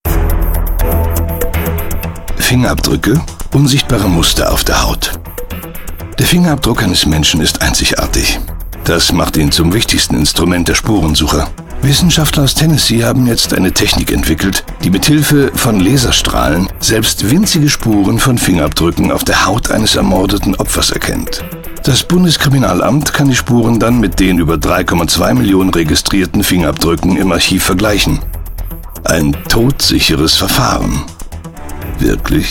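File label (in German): Doku, deutsche Synchronstimme; Stimme von Morgan Freeman, Chuck Norris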